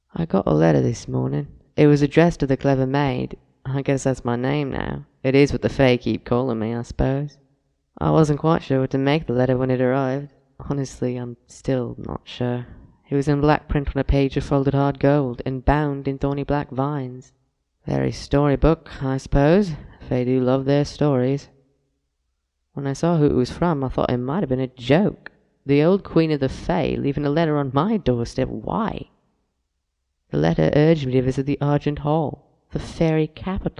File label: Narration